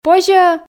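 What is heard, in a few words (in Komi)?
"позьӧ-ӧ", Komi, female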